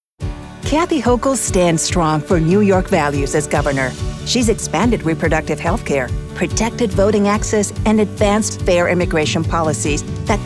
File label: political voice over candidate positive english